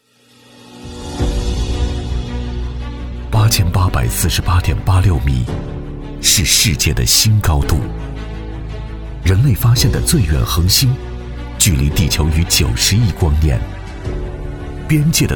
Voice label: 男 企业宣传 品质; 上投摩根; 男42-磁性质感

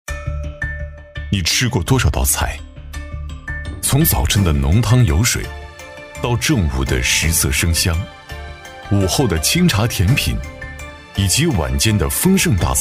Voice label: 男-纪录片-磁性讲解, 厚重有力 质感磁性; 乔老爷粉蒸肉

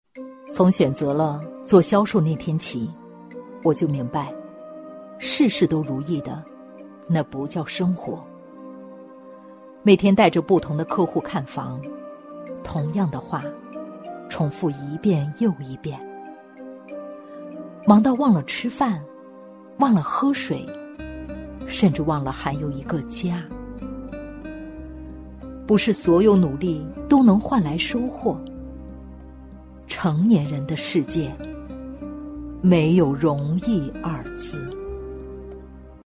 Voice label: 女 走心旁白 销售 温暖有故事; 低沉走心

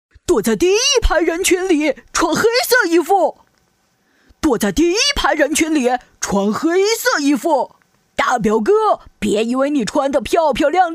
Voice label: 女 卡通角色【男孩女孩】; 可爱

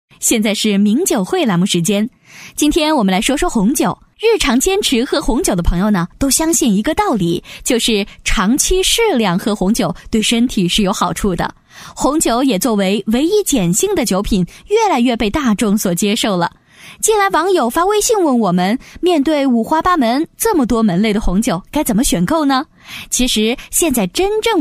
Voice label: 女 电台主持【主持人 随和聊天】; 素人自然; 名酒汇

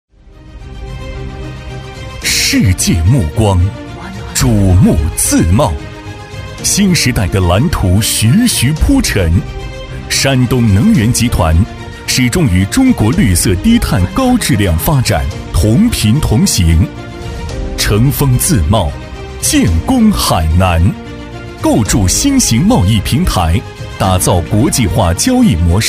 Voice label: 男 企业宣传 大气激昂; 激情有力 大气浑厚

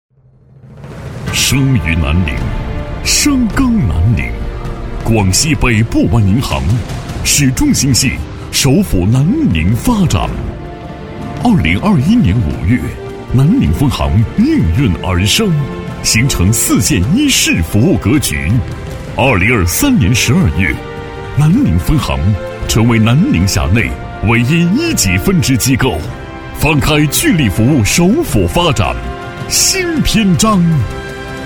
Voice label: 男 汇报片 大气浑厚; 广西北部湾银行

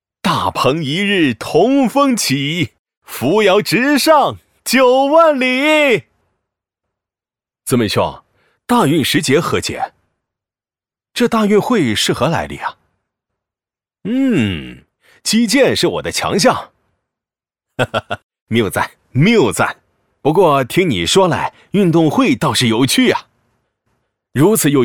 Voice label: 男 角色; 年轻浑厚 素人自然; 李白

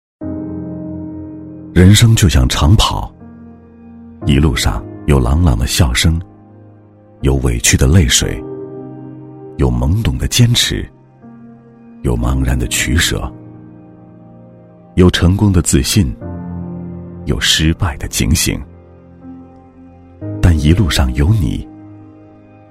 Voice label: 男 独白 微电影 走心; 高端质感; 质感磁性; 一路有你

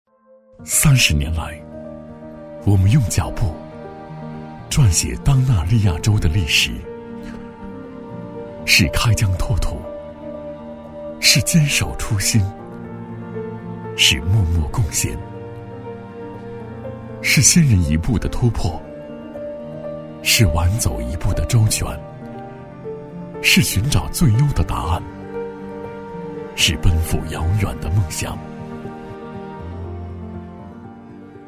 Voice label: 男 自然走心广告, 大气浑厚; 李宁广告

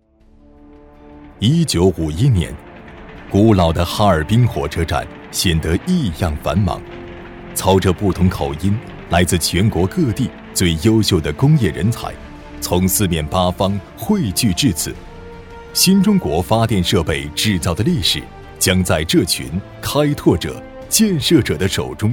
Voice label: 男 纪录片 浑厚磁性; 大气恢弘 大气浑厚; 哈电集团

